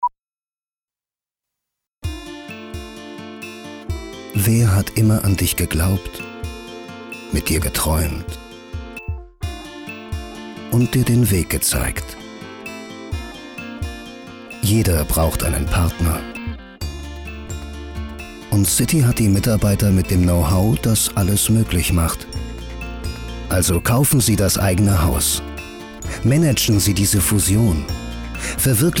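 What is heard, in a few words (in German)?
german male commercial; voice demos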